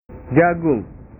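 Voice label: "jagung", Indonesian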